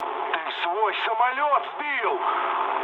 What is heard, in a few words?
player killed ally, mood low, speech ru